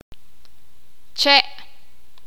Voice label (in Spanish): "palo", sakapulteko